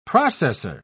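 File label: "processer", English, British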